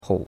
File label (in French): "hou3", chinois; Cours-de-Chinois